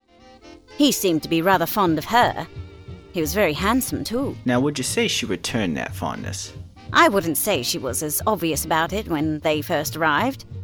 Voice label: Mid Atlantic